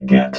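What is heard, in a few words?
"get", robot voice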